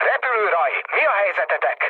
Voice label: hun chat, speech hun; War Thunder